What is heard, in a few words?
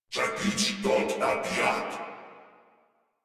Patrol Crewmen, Battle Barks; Warframe; Corpus Crewmen; Crewman C